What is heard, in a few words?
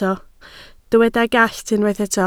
"gallt", Welsh